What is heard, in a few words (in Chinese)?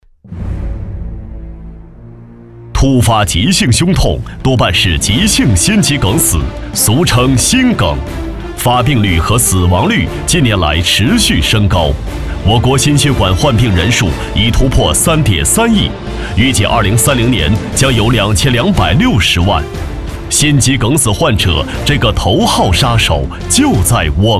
男 解说 突发急性胸痛多半是急性心肌梗死